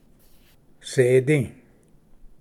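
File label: "sedi", Cherokee; ᏎᏗ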